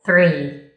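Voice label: "Three", spoken number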